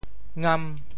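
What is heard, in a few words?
"ngam5", Teochew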